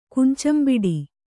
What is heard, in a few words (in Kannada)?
"ಕುಂಚಂಬಿಡಿ", Kannada